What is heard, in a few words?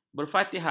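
"berfatihah", Malay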